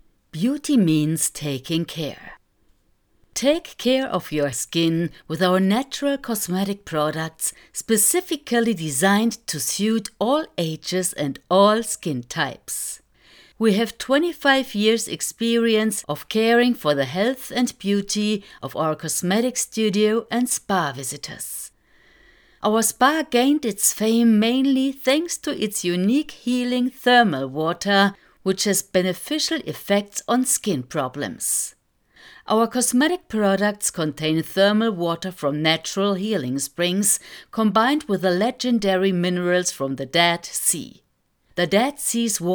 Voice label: English Audio Sample